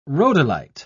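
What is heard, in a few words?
"rhodolite", British English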